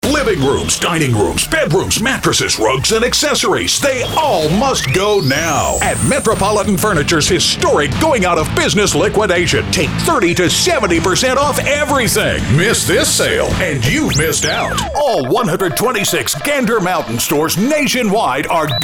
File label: High Energy